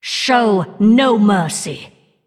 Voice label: Female Undead Bark